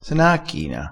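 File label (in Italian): "cacchina", dialettale crespinese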